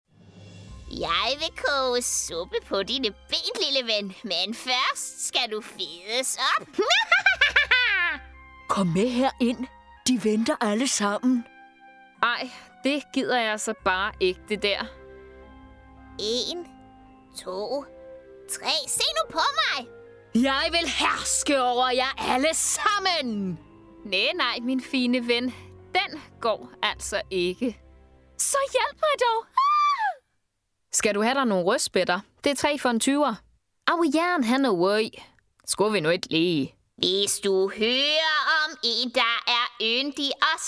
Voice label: female danish character compilation